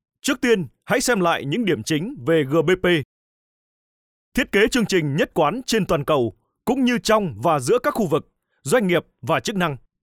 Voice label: 外籍越南语男大气激情

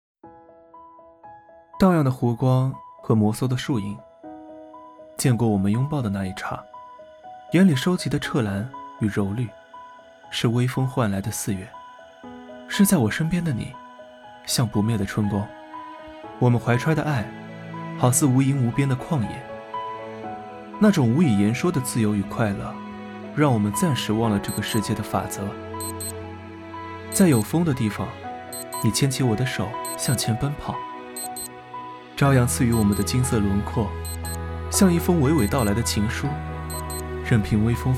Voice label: 年轻 素人

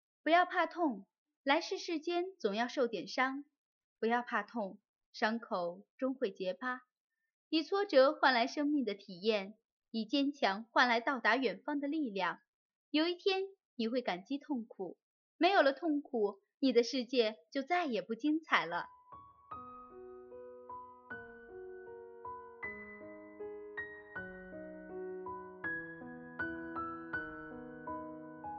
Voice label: 电台

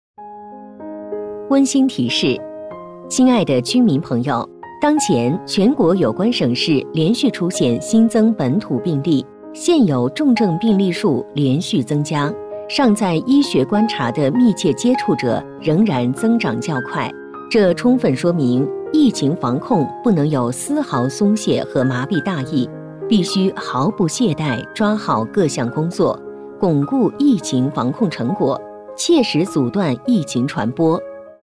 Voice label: 公告疫情防控

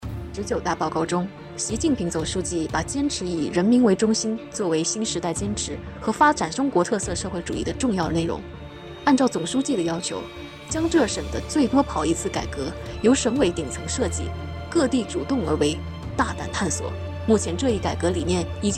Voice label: 专题新闻联播成熟稳重

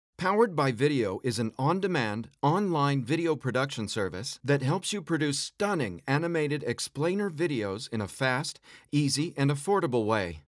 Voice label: 干音摄像服务介绍

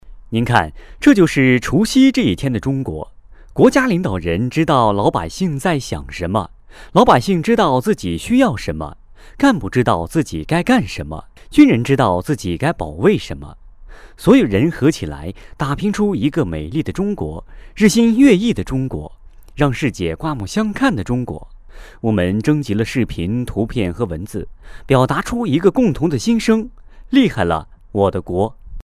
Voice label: 新闻配音

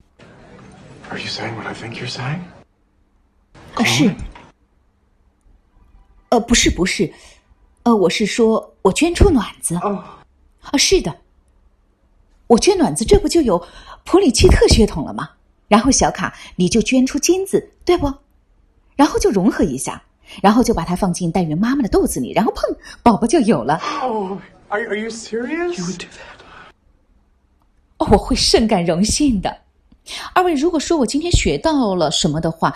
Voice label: 外国剧配音; 我想要个孩子

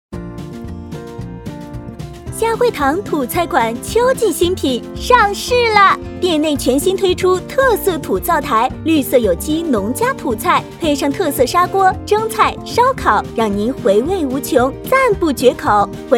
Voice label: 饭店广告

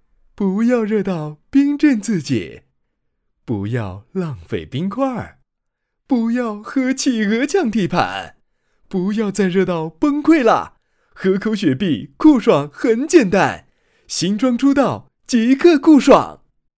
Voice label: 年轻广告; 雪碧

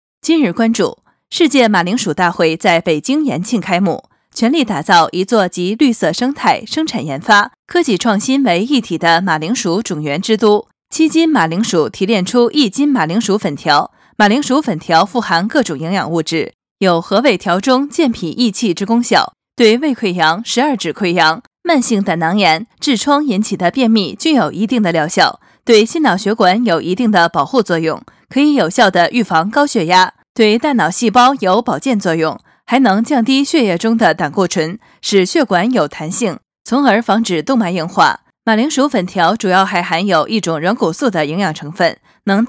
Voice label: 广告新闻今日关注

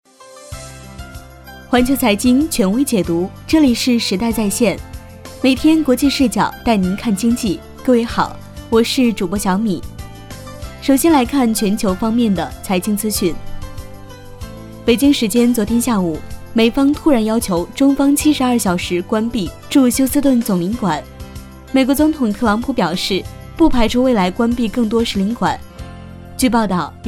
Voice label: 新闻 试音

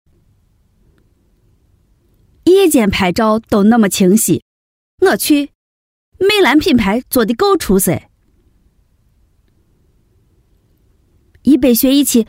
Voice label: 陕西话方言试音

